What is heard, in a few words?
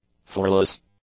"floorless", english; adjectives